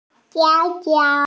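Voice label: "xiang1 jiao1", Mandarin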